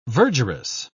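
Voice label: "verdurous", British English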